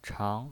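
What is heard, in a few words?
"chang", Mandarin Chinese, second tone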